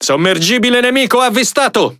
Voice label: It Speech Detection Enemy Sub; World Of Warships